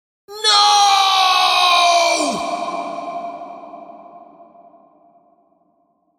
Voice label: "No" Shouted, The word 'No' shouted in male voice with reverb.; Yelling